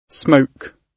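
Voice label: "smoke", British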